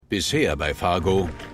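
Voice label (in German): Erzähler; Fargo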